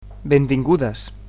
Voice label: "welcome", Catalan